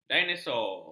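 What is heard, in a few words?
"dinosaur", Malay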